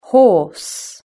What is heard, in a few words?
"horse", british english